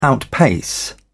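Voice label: "outpace", British English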